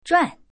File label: pass, girl; paoyao